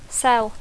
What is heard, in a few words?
"cell", English; cel·la; cèl·lula; (Am) (telèfon) mòbil